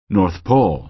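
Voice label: "north pole", American English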